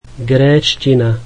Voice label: Greek, slovak